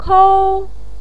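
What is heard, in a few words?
"kou", Mandarin pinyin, tone 1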